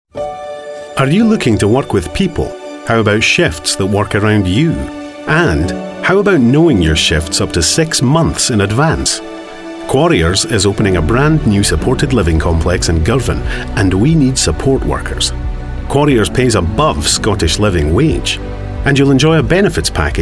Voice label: Advert; Quarriers